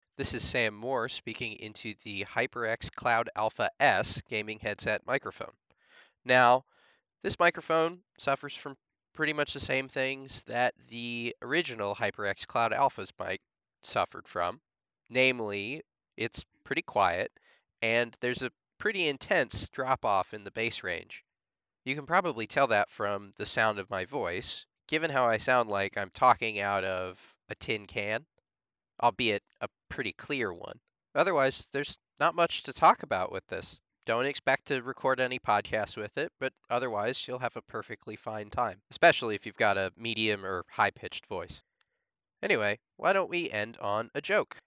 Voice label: mic sample; HyperX Cloud Alpha S